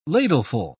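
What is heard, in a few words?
"ladleful", British English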